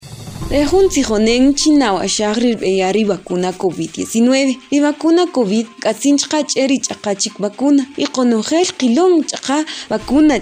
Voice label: SPOT KAQCHIKEL